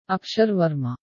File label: malayalam india pronunciation guide